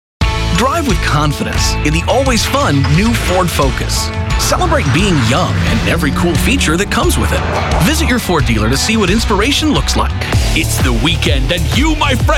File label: Commercials